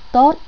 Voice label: "Tó't", Vietnamese